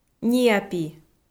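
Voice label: "ниапи", Komi-Zyrian, female; kpv audio dict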